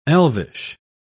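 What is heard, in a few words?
"elvish", British English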